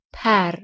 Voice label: "per", Persian